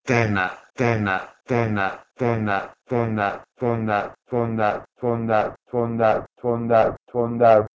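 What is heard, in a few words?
Proto-Indo-European tenh2 to Persian tondar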